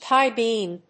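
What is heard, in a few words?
"tie beam", English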